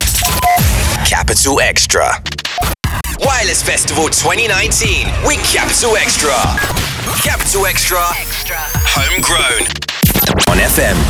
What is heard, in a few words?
Radio Imaging; Showreel